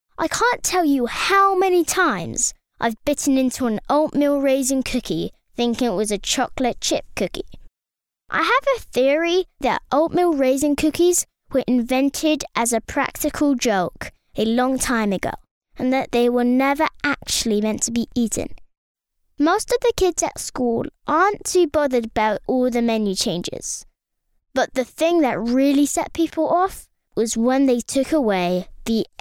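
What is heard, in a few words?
British English, child; voice over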